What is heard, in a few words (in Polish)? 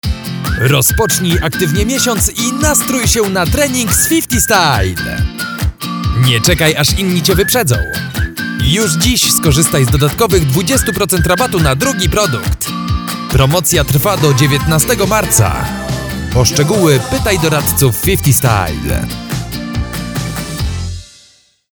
50 style spot do radia 50 style